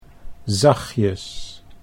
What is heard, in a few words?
"zachtjes", Dutch